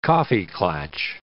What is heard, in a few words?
"coffee klatch", English